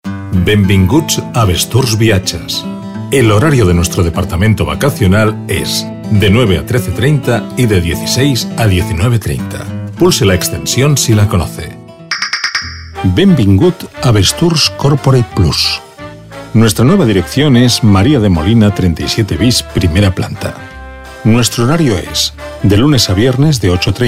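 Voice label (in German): telefonansagen sprecher Spanish; Native Speaker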